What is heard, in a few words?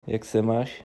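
Czech how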